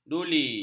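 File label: "duli", Malay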